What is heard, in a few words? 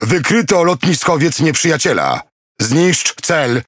TASK MESSAGE, Languages PL; PVE; World Of Warships